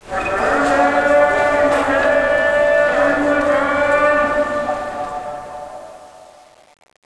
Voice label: arab call